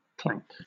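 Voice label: "clank", English, British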